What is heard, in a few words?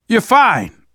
revive friend, survivor voice manager